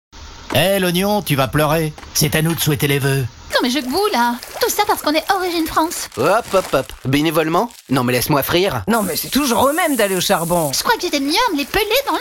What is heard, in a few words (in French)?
pub voix off; burger king frites